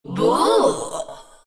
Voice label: anger, zh; Dota 2